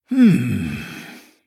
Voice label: Thinking